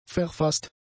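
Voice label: "verfaßt", German; adjectives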